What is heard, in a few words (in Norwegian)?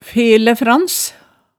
"felæfrans", hemsedalsmaalet